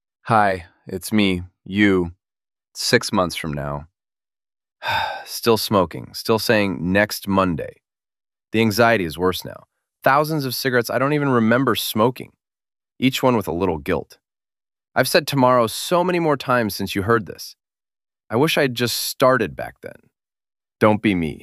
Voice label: future b male; voicemails